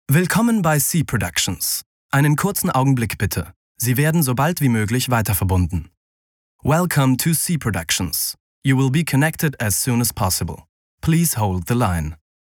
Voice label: Phoneline